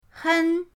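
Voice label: "hen1", Mandarin Chinese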